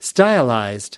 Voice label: "stylized", us